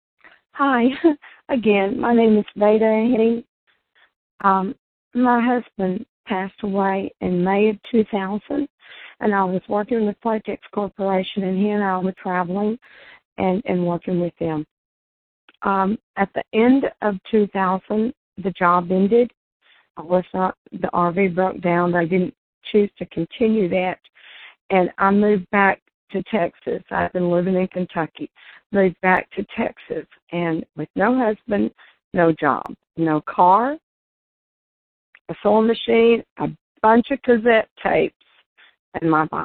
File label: February Voicemail